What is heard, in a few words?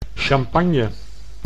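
"champagne", Dutch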